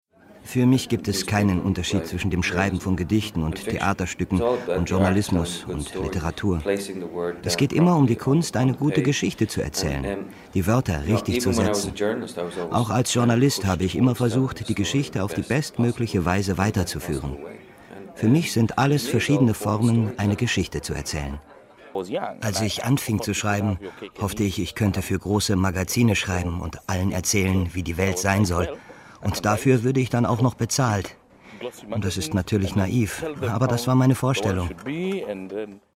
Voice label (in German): Voice Over